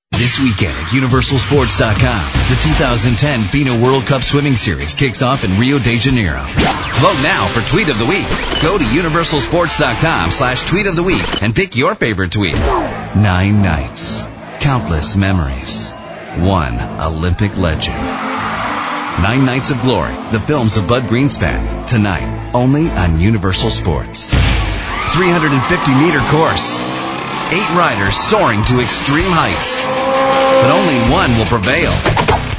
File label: PROMO, Commercial Voiceover, Promo